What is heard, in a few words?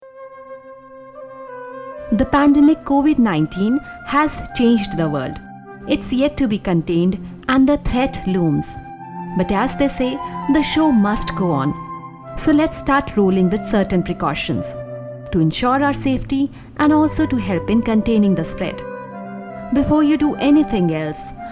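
english; covid-19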